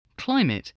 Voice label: "climate", voice

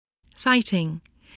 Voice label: "sighting", spelling

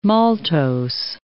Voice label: "maltose", English

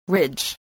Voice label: "ridge", English spelling

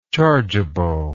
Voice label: "chargeably", English

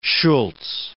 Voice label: "Shultz", English

